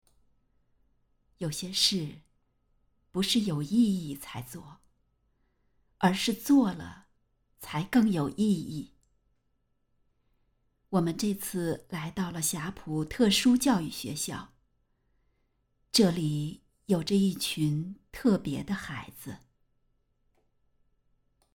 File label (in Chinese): 公益类型人文纪录片